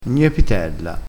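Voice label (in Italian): "gnepitella", vocabolario dialettale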